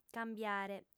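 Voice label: "cambiare", female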